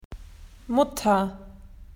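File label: "mum", Australian English; PictureDictionary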